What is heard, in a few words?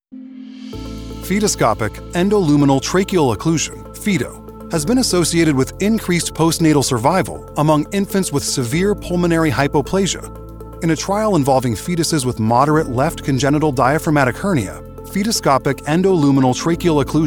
Medical Technical Helpful